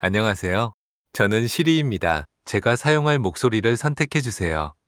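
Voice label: Korean; iOS 26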